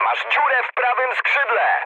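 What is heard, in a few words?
Polish right wing mood medium; speech; War Thunder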